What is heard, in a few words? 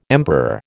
"emperor", American